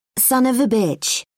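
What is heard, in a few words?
"son of a bitch", British English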